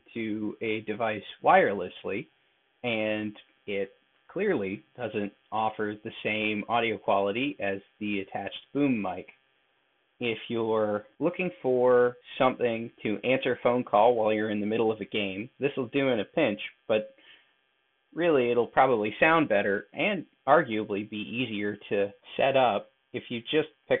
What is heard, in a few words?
internal mic sample; HyperX Cloud Mix